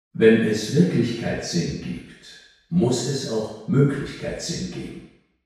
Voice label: live room male; sarm studios london